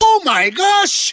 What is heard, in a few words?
"oh my gosh", expletives, g-rated swears